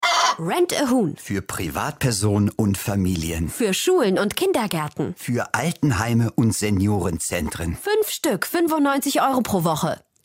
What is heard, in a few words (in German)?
Spot; Rent a Huhn